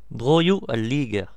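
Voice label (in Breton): "pays de la loire", Breton; france region; gcompris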